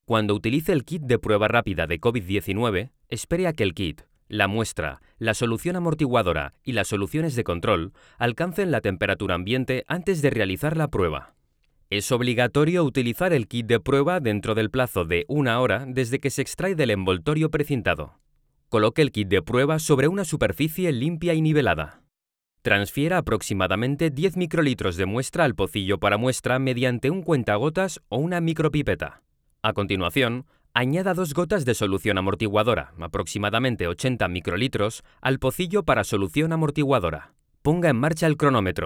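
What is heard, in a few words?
Spanish, male, elearning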